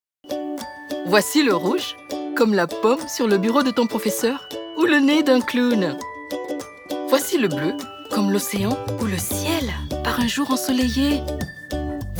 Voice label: French Children Education, Courageous, Encouraging, Passionate; elearning voice over; e-Learning Samples